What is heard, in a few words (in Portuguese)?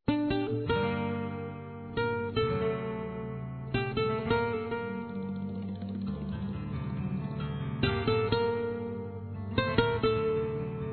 Aniversário Avô Feminino; Aniversários; Aniv Familiar